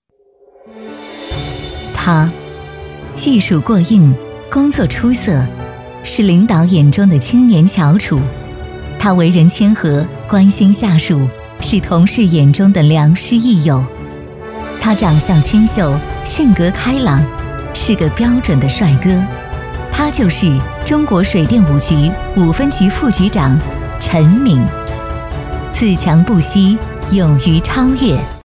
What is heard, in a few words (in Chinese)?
女 专题 解说